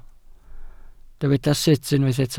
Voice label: "sut", Welsh